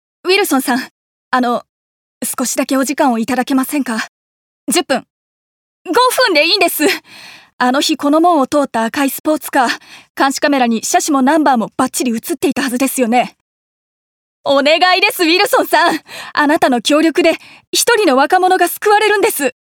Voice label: 女性刑事

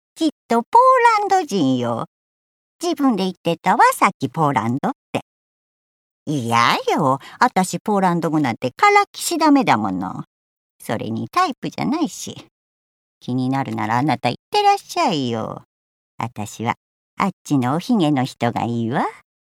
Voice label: 老人

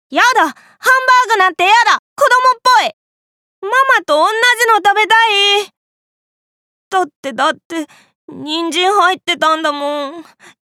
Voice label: 少年

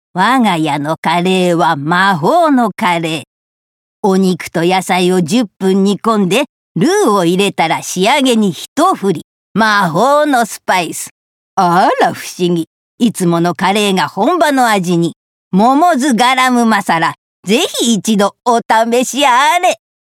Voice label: カレーCM魔女風

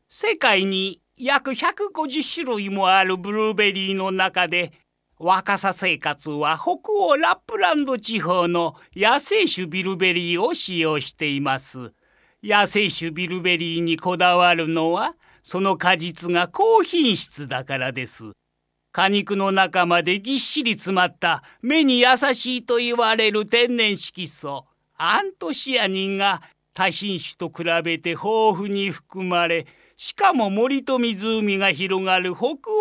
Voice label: 商品紹介

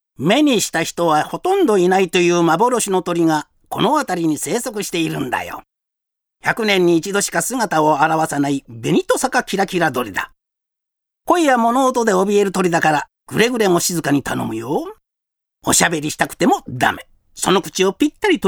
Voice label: クセ強の野生動物ガイド

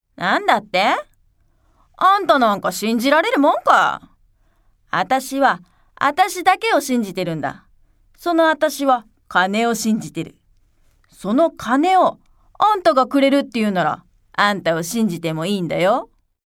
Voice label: やさぐれキャラ

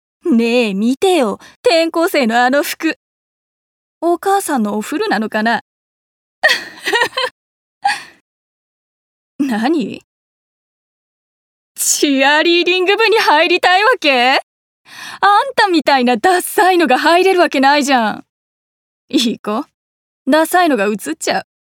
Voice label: いじわる女子高生

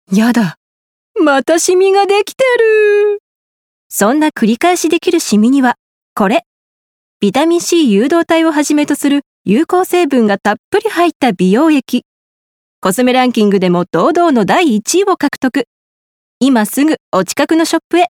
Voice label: コスメCM

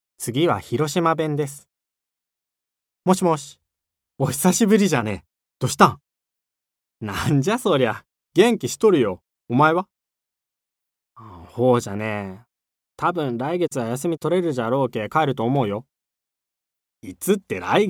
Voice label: 方言

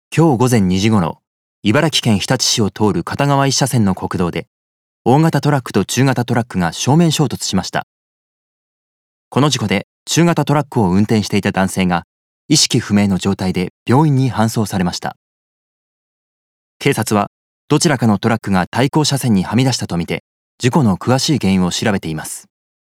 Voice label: ニュース

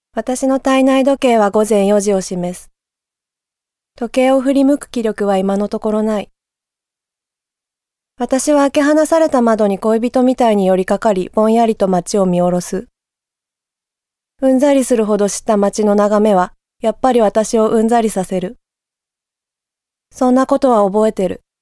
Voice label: 朗読

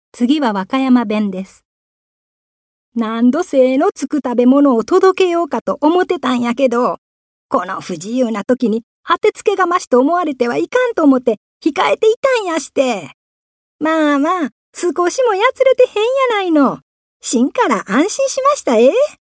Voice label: 方言

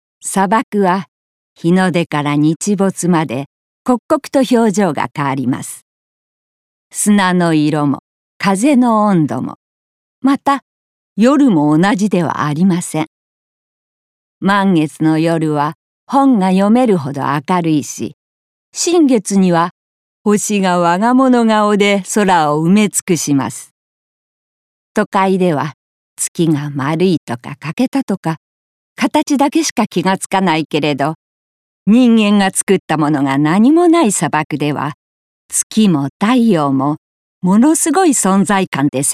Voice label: 紀行ナレ淡々と

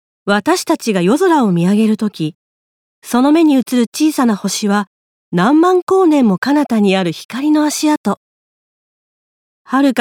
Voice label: 教養番組ナレ

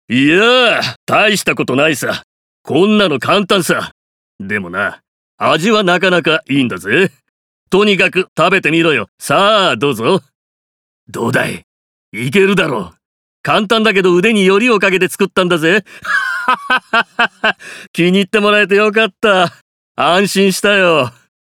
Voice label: 豪快 50代

